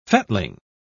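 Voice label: "fettling", English, British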